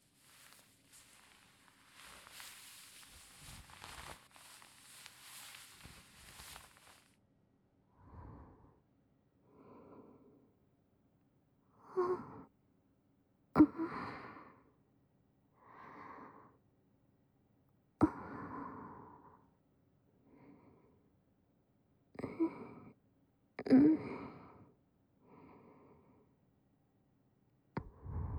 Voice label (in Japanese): 密着ウィスパー, ASMR; 変性意識誘導での、リラクゼーション安眠導入; 蓄音レヱル